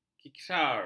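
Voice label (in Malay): "kisar", Malay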